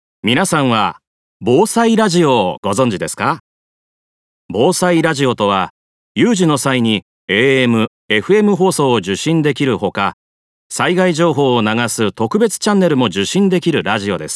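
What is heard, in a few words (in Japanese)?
広報ナレーション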